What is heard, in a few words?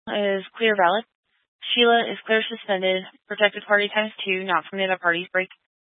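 Sheriff Dispatch, Scanner audio recording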